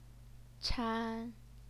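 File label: "chan", Mandarin Chinese, first tone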